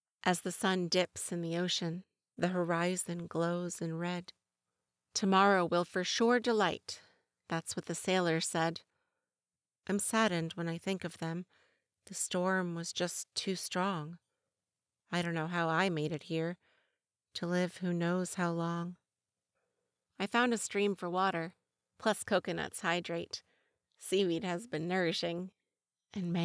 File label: Poetry Sample, narration; Poetry / Rhyming Verse